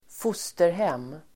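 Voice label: "fosterhem", Swedish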